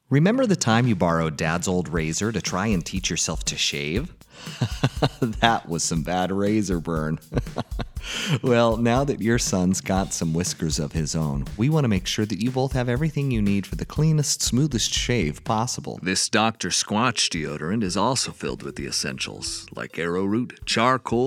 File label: vo commercial; voiceover